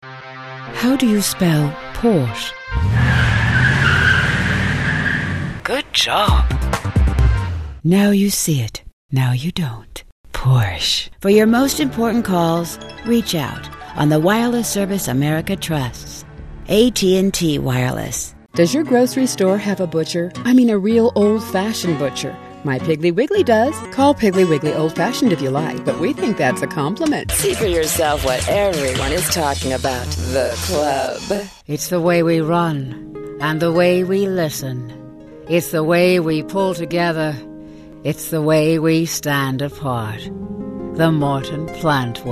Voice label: women commercial